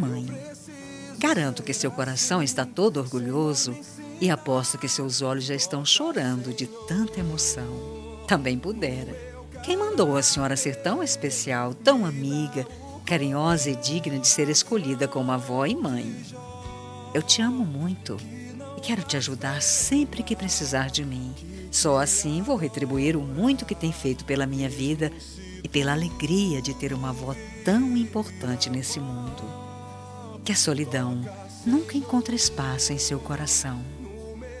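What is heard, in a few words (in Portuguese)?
Dia das Maes para Avo feminino, telemensagem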